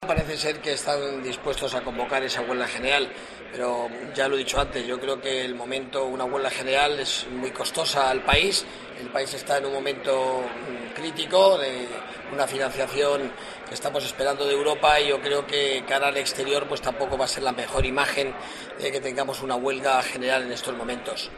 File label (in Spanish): noticias